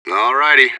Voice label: radio bot reponse positive; fbihrt